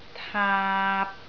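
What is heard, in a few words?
"taap3", Cantonese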